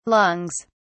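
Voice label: "lungs", British English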